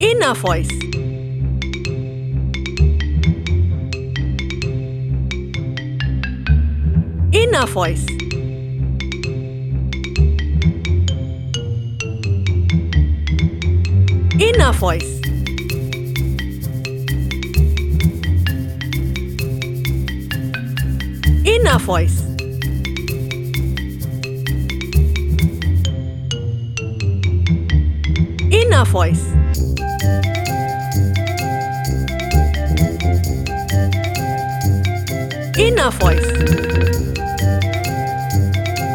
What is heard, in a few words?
comical corporate